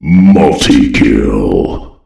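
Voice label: "multikill", male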